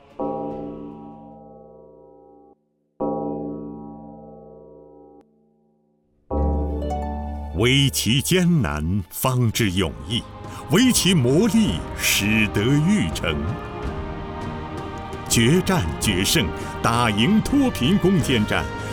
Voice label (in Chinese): 党政军内容汇报片片段